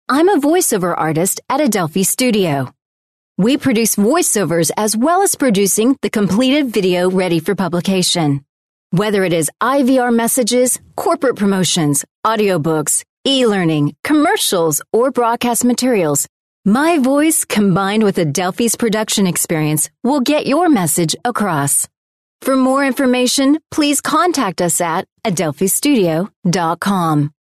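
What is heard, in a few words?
English, US, female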